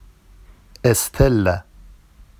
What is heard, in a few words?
"estella", Vafsi; اِستِلَّ; n pool